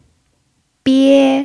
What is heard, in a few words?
"bie1", pinyin